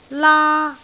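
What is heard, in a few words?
"laa1", Cantonese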